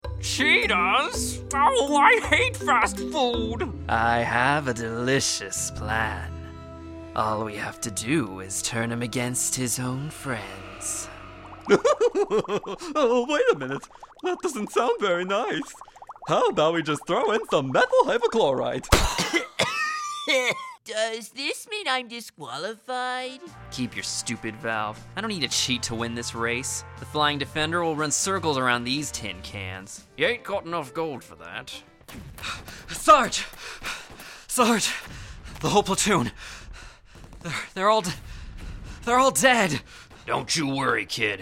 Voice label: Character